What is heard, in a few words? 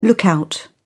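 "lookout", British English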